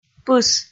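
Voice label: "пыс", Komi, female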